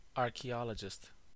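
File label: "archaeologist", US English; pronunciations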